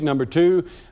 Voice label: number two male; numbers and letters

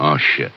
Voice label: "oh shit", announcer, expletives

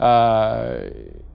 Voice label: "uh" wondering guy; ooh ahh; uh um

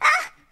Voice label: uh, cartoon; ooh ahh; uh um

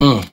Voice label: "uh", male

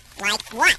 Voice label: "like what", cartoon; questions

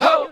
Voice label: "oh", Voice; ooh ahh

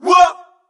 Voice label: "what", Voice; ooh ahh

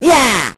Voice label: "yeah" cartoon, voice

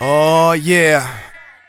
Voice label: "ah yeah", Voice

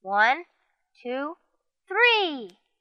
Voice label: "1 2 3" kid, Voice; numbers and letters

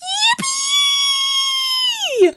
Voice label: "yippie", cartoon; ooh ahh

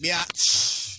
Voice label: "bitch", expletives

